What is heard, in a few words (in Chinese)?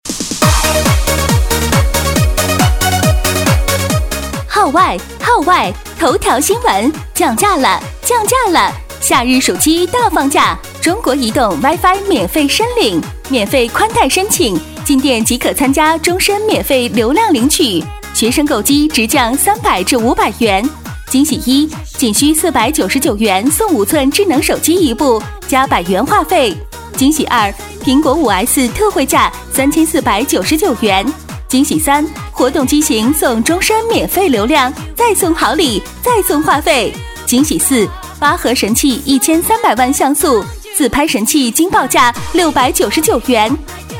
激情; 促销; 女; 中国移动